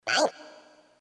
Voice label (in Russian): korotkij zvuk vau multjashnyj; мультяшные звуки wow